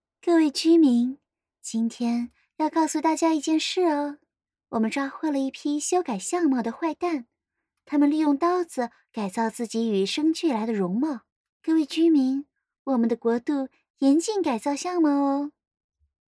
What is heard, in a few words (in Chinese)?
少女音